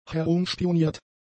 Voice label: "herumspioniert", German; adjectives